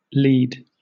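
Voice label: "lied", British English; pronunciations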